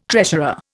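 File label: "treasurer", Indian English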